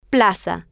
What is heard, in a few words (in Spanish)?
"plaza", Spanish